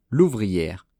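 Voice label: "louvriere", French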